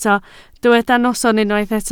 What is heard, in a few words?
"noson", Welsh